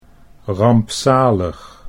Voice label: "rampzalig", Dutch

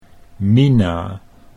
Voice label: "Mina", Dutch

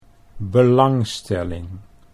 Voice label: "belangstelling", Dutch

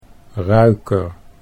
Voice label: "ruiker", Dutch

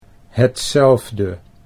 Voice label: "hetzelfde", Dutch

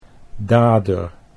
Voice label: "dader", Dutch

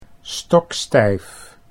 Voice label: "stokstijf", Dutch

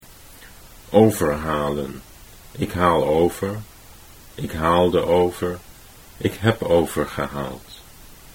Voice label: overhalen ik haal over, Dutch

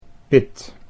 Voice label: "pit", Dutch

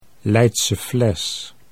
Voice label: Leidse Fles, Dutch

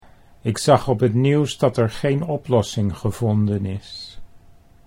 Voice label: ik zag op het nieuws dat er, Dutch; 'I saw on the news that ...'; conversations

